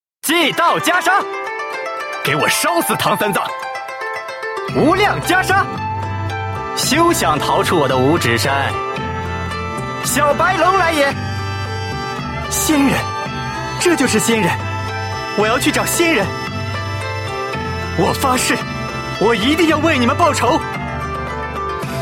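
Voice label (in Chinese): shaonian, boy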